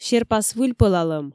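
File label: "серпас выльпӧлалӧм", Komi, female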